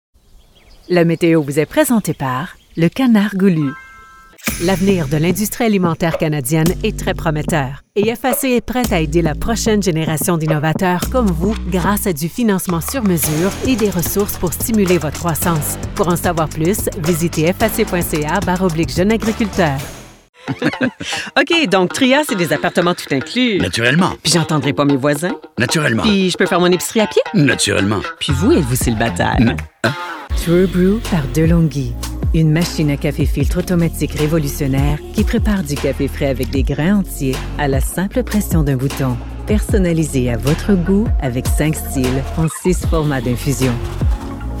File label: FR Demo